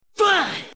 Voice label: boom, made with voicemod, vocals